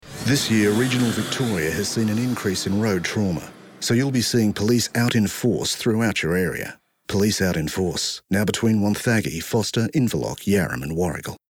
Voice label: Serious Voice